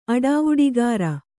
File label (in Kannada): "ಅಡಾವುಡಿಗಾರ", kannada